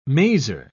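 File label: "maser", British English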